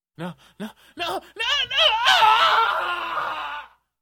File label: Person begging for mercy